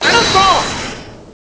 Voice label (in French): "un instant", french